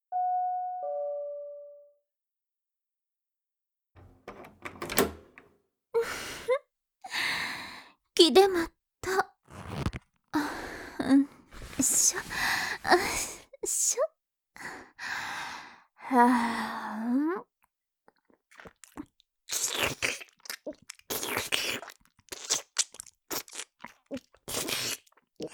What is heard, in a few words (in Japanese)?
津軽弁, アヘ声; 玄関あけて９秒で即尺からのおねだりクパァ; アヘ声裏垢女子